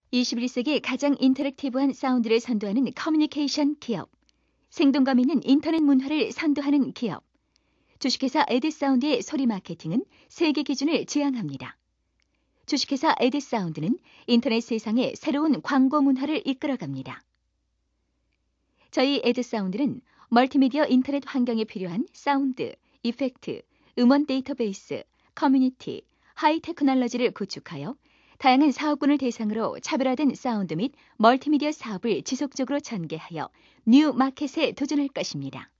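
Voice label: Korean female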